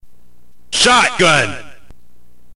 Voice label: narrator